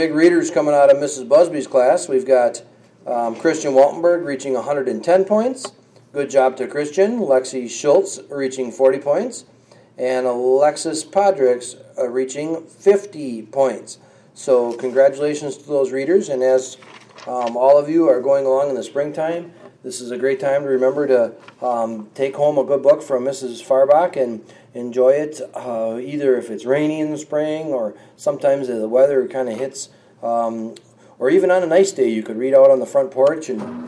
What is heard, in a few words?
announcements